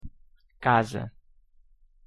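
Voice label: "casa", Portuguese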